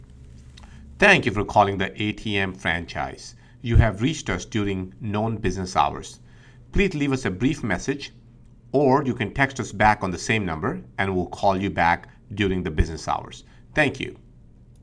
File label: ivr greeting